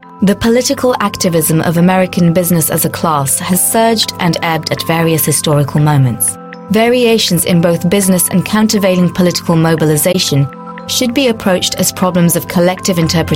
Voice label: Documentary; Natural Accent: Neutral

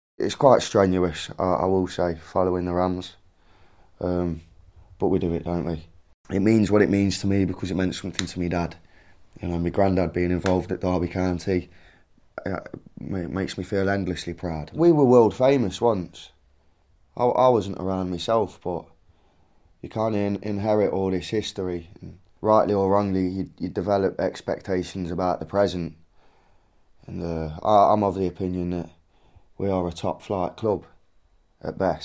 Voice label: Natural Read; Natural Accents: Midlands, Northern

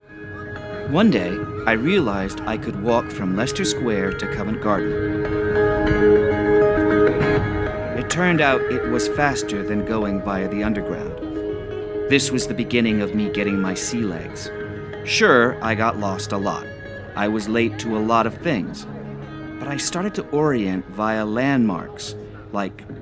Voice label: Narra; London WALK

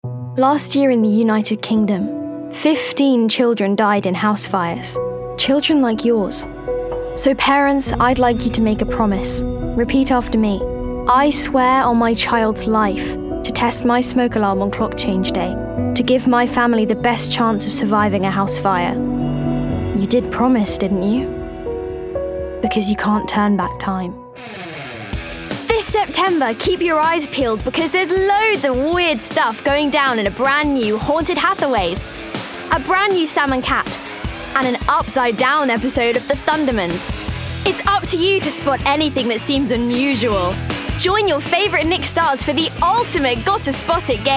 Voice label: Voicetape Compilation Commercial Promo

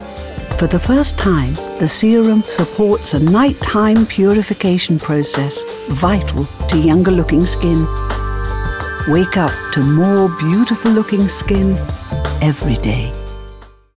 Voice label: Commerical- Skincare

